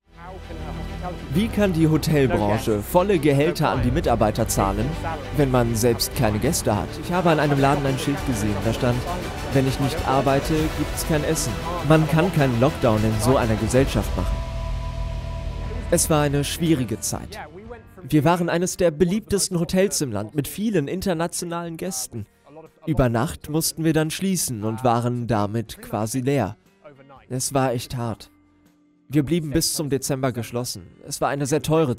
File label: voice over; arte